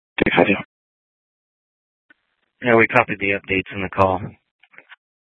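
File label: Sheriff, Parks, Sheriff radio scanner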